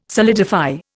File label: "solidify", Indian